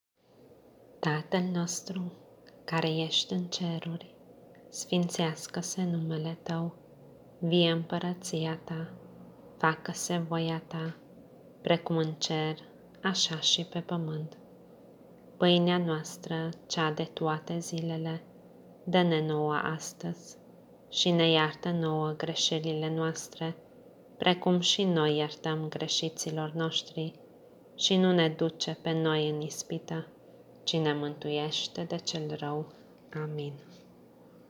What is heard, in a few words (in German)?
Vaterunser rumanisch